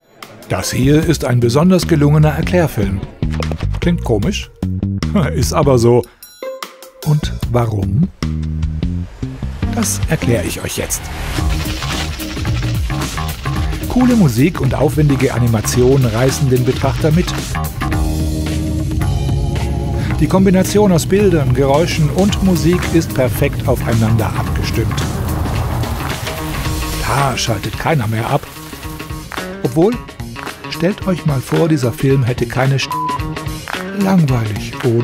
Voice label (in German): Audio Erklaerfilm Eigenwerbung